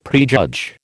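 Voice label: "prejudge", American English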